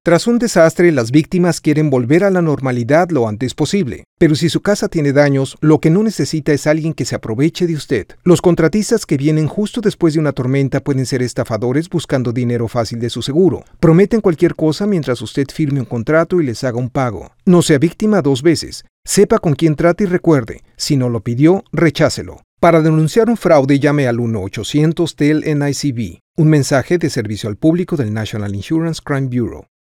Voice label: Contractor Radio Spanish; NICB